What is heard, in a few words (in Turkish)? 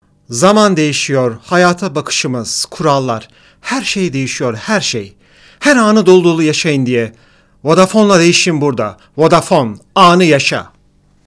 REKLAM